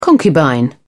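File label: "concubine", British English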